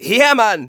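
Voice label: cheers, German; Scout; "Ja Mann!"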